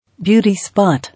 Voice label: "Beauty spot", English